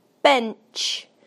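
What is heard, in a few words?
"bench", British English; PictureDictionary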